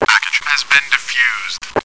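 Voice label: bomb defused, radio; CS ForKids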